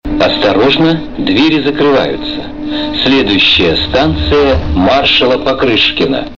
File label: male